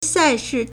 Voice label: "sai4 shi4", Chinese